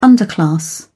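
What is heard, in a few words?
"underclass", British English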